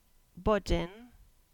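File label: "Bone", Cornish; place-names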